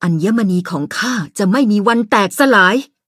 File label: level up, th; Cookie Run